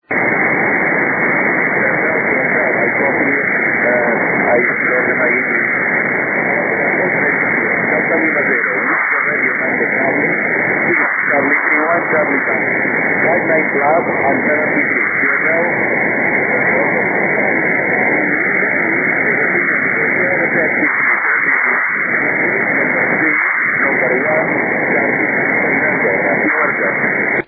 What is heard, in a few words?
6m ssb